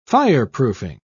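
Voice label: "fireproofing", British English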